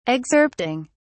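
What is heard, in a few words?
"excerpting", British English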